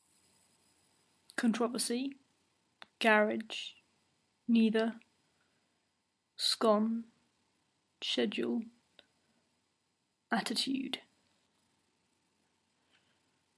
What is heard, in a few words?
Bristol England female